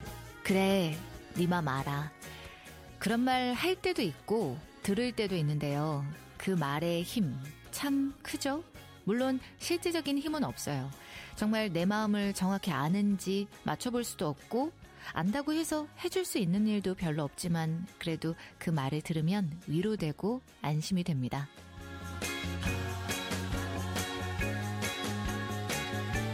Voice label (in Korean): 라디오 DJ 멘트 일반인 30대; KBS 2라디오 해피타임4시